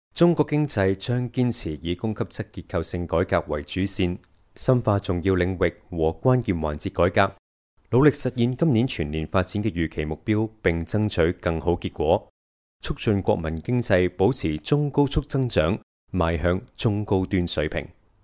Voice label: 男 新闻, 粤男

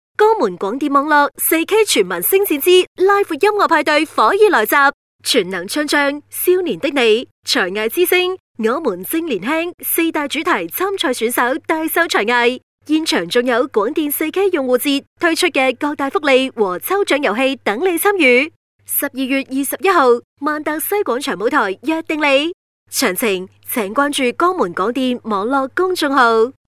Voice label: 女 促销; 江门广电

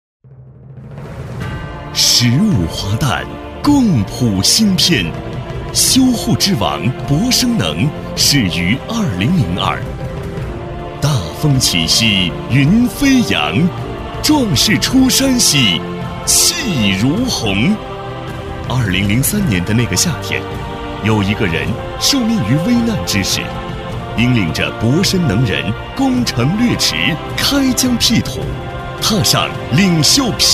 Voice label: 男 宣传 企业大气专题

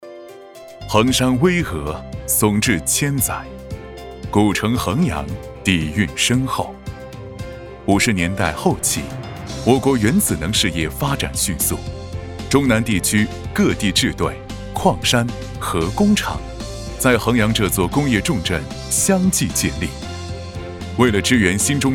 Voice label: 男 宣传; 药学

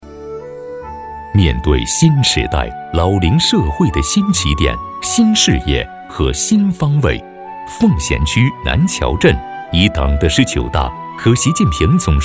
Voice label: 男, 宣传; 南桥养老

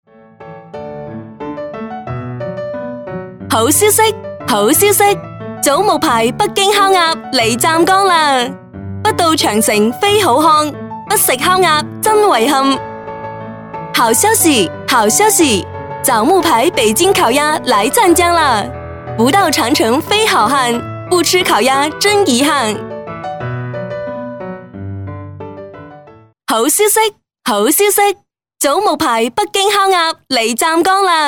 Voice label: 女【促销】枣木牌北京烤鸭, 粤女

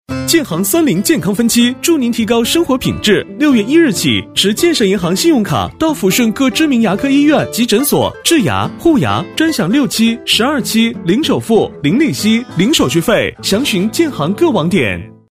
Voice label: 男 MG飞碟; 建设银行

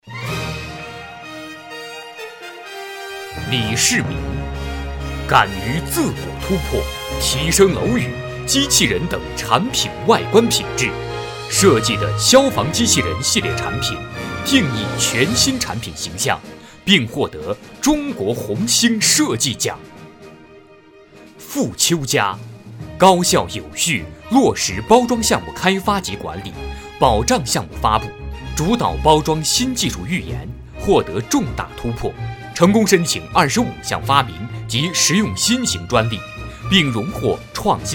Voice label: 男 颁奖

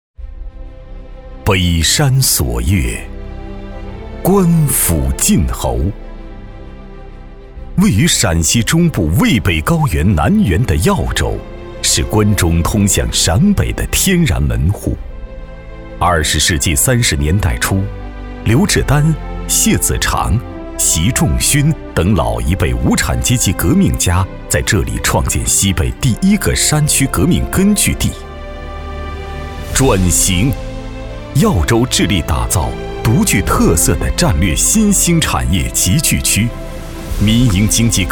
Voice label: 男 宣传; 耀州政府招商

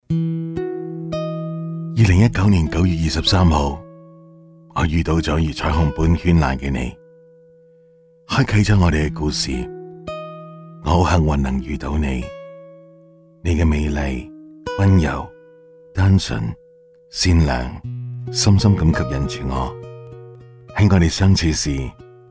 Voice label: 男【旁白】独白; 粤男

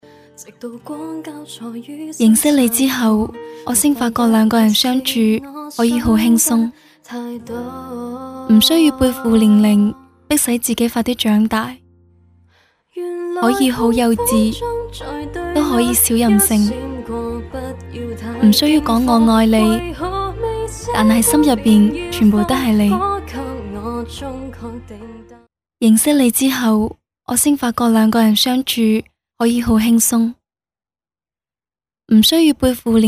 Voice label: 女【旁白】认识你之后

